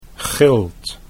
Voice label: "gilt", Dutch

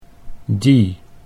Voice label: "die", Dutch

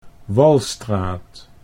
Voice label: "Walstraat", Dutch

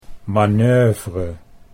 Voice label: "manoeuvre", Dutch

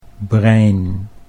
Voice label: "brein", Dutch

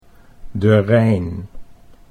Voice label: "de Rijn", Dutch

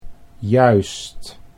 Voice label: "juist", Dutch

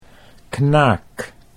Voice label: "knaak", Dutch

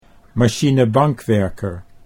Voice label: "machinebankwerker", Dutch

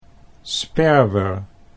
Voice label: "sperwer", Dutch

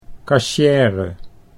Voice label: "kassiere", Dutch

